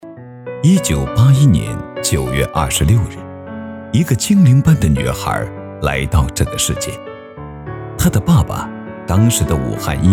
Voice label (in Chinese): 男 解说 人物; 姚贝娜